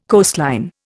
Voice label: "coastline", Indian

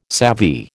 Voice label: "savvy", American

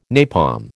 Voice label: "napalm", American

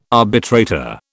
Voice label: "arbitrator", British

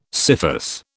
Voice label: "scyphus", British

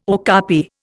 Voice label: "okapi", Indian

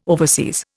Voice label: "overseas", Indian

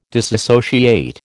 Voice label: "disassociate", American

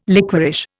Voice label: "liquorish", Indian

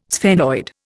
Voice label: "sphenoid", Indian

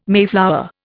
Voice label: "mayflower", Indian

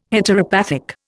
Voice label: "Heteropathic", Indian

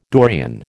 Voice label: "Dorian", American

